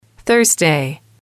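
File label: thursday, female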